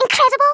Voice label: "amazing", Scouser

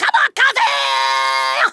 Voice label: "kamikaze", Kamikaze; Worms Armageddon

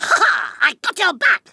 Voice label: "revenge", Kamikaze; Worms Armageddon

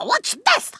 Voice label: "watch this", Kamikaze; Worms Armageddon

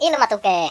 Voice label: "orders", Finnish; Worms Armageddon

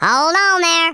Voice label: just you wait, Redneck

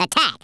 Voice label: "fireball", Redneck

